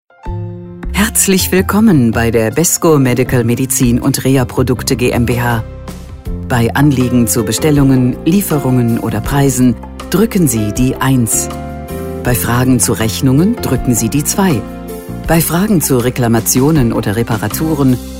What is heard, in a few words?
IVR